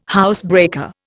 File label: "housebreaker", Indian